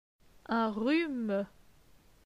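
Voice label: "Un rhume", French; phonetique; les consonnes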